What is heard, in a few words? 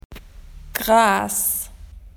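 "grass", Australian English; PictureDictionary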